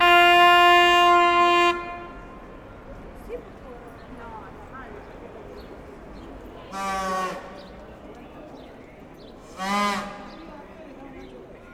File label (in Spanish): vendedoras cornetas mundial; p cb y corrientes; Rosario